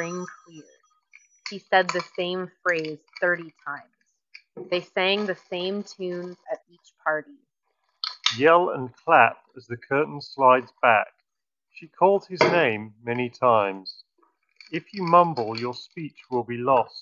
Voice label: Office microphone sample; JBL Endurance Peak3